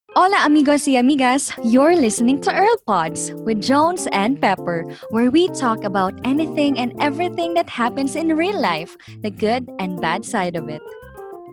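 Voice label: Promo English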